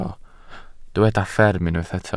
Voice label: "fferm" Welsh

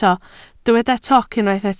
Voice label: "toc", Welsh

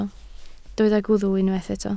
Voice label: "gwddf", Welsh

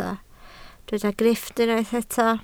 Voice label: "grifft", Welsh

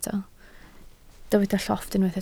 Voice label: "llofft", Welsh

